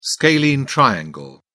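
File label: "scalene triangle", British English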